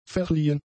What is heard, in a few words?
"verliehen", German; adjectives